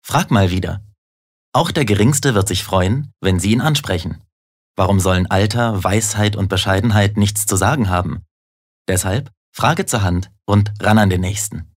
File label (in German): Werbung, Freundlich